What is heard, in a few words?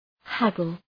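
"haggle", English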